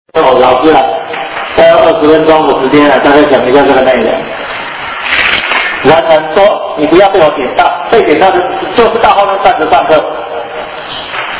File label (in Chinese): 上課錄音; reading Moving battery